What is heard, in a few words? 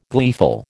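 "gleeful", American English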